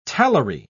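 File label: "talari", British English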